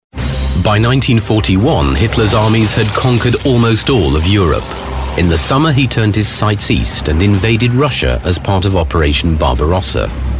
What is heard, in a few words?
documentary; WWII